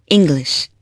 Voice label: english